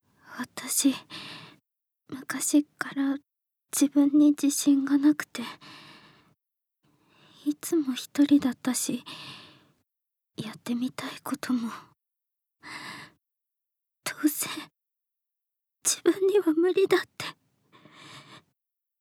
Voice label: Woman